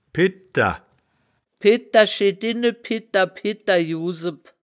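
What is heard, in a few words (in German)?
"piddter", wittlicher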